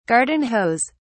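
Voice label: "garden hose", British English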